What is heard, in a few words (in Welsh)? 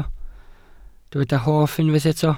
"hoff", Welsh